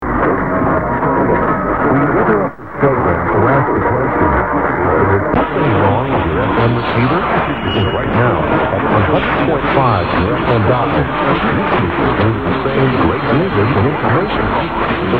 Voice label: Ad; 840 CHUR North Bay